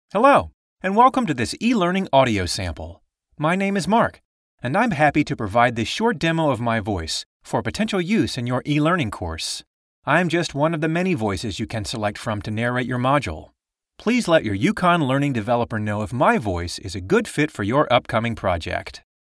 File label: Formal, voice talent